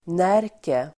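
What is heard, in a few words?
"Närke", Swedish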